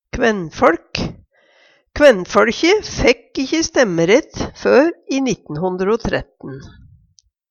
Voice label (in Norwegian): kvaennfoLk, Numedalsmål; KvænnføLkje fækk ikkje stemmerett før i 1913.